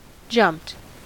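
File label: "jumped", American English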